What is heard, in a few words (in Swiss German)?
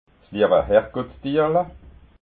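"liewahergottstierla", Alsatian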